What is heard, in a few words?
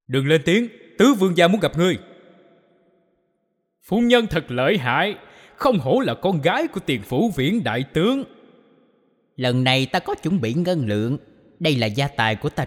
Vietnamese video games